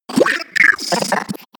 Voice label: crazy talk